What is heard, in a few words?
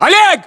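"oleg", battlechatter, Russian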